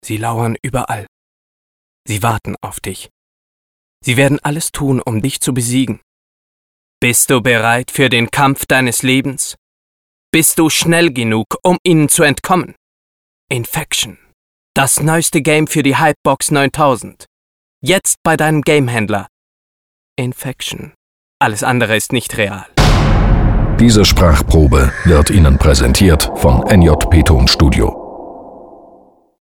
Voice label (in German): Deutsch Gamewerbespot